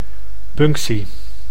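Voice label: "punctie", Dutch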